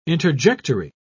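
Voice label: "interjectory", English, British English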